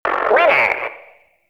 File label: Arcade Voices; Ambience; Props; Warframe; Nineteen Ninety Nine